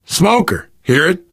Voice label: heard smoker, player survivor voice biker; source engine; left 4 dead 2